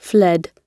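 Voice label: "fled", British English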